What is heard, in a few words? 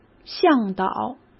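"向导", Mandarin Chinese; hsk; chinese-flashcards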